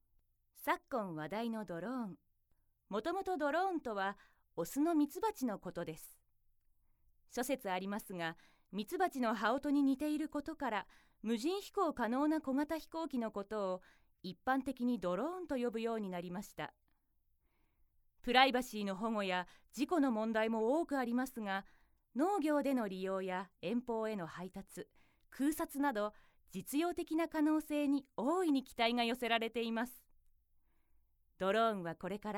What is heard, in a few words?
narration